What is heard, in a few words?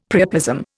"priapism", Indian; Dictionary